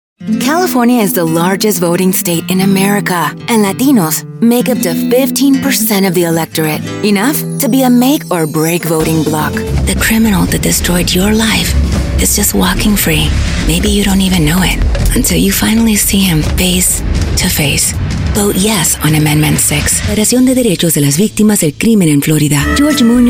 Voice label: Political English